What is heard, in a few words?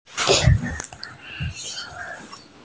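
"tai2feng1" Mandarin Chinese, female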